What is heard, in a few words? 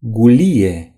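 "turnip", Romanian; gcompris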